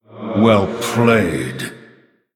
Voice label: Male Human, Well Played